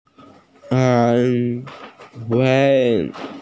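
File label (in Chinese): "an1 wei4" Chinese, female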